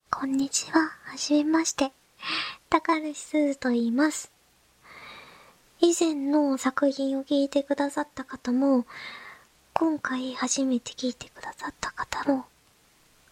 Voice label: フリートーク

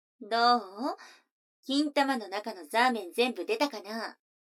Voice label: 女の子台詞

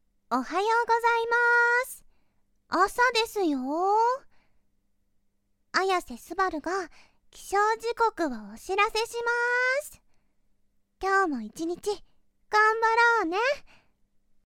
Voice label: おはよう、お姉ちゃん（普通）, 子犬系JK妹キャラ; すばるのほし ～子犬系JK妹キャラにたっぷり愛されちゃう百合音声～

